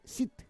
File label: "sit", Roon